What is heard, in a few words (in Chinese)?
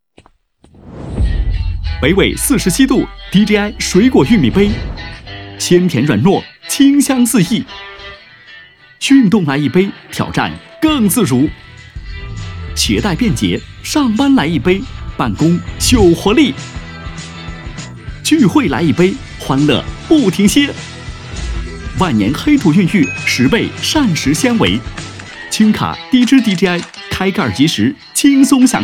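广告（轻松、欢快）; 玉米杯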